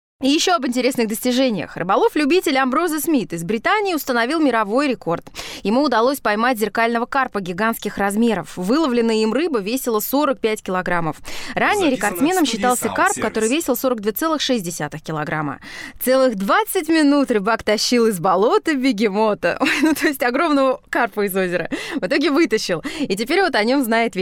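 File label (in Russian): news, Русский, Звонкий, Бархатный, Средний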